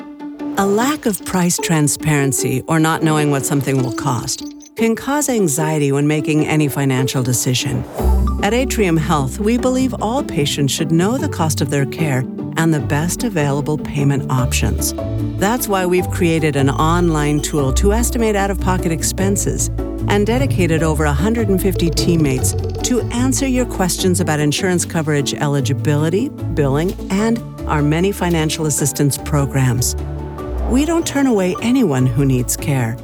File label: B2B Explainer, voiceover explainer narration; Atrium Health